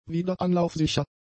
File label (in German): "wiederanlaufsicher", German; adjectives